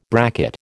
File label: "bracket", American